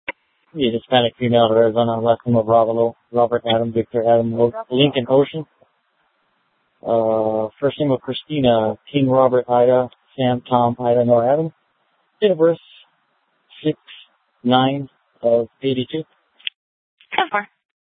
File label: Sheriff, scanner P25